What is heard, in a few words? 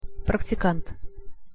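"praktikant", Russian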